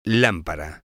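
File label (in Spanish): "lampara", Spanish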